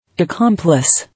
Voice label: "Accomplice", English